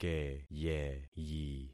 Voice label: "keyeyi", concat syllables; Affixing experiment